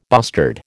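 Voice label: "bustard", American English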